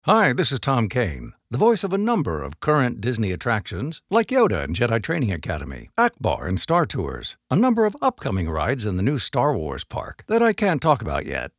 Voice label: Bumper; Tom Kane; dizradio show